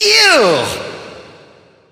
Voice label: hurt vo; 游龙错艾德加（荒野乱斗）